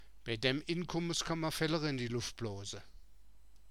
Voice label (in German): fällarre in die luffd bloose, Mundart; Bäi dämm Innkummes kòmma Fellarre in die Luffd blòòse!; Bei dem Verdienst kann man es sich gutgehen lassen